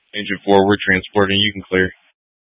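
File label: Incident Tactical, scanner audio recording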